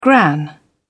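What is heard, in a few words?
"gran", English, British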